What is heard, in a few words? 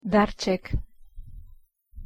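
"darček", Slovak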